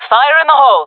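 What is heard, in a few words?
throwing grenade, female voice; player vo; Counter Strike Model Pack; CS:GO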